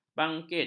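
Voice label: "bangkit", Malay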